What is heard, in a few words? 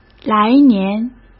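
"来年", Mandarin Chinese; hsk; chinese flashcards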